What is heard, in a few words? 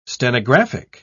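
"stenographic", British English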